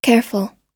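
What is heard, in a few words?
"careful", American English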